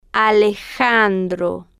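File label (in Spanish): "alejandro", Spanish, female, slow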